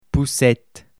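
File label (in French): "poussette", French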